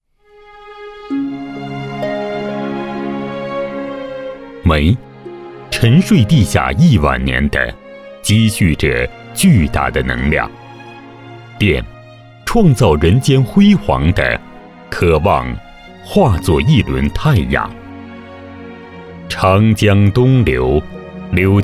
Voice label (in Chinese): 男, 专题; 皖电东送